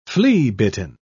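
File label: "flea-bitten", British English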